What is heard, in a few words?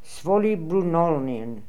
"Svolibroonolnian", pronounciations; Fourth Way; Beelzebub